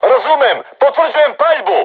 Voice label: voice message art shoot, crew tanks serbian